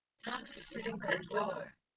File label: calm happy generated; GAN for speech spectrogram